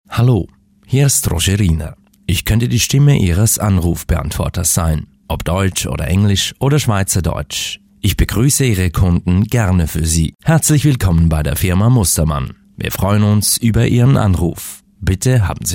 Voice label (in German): Sprecher Native speaker; professionelle Native Speaker